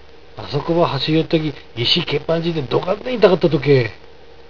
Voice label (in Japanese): あそこば走いよったぎ、石ぃけっぱんぢーてどがんっでん痛かったとけー。, 有田弁; あそこを走っていたら、石にけつまづいてとても痛かったよ。; けっぱんづく; けつまづく